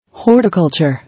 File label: "Horticulture", English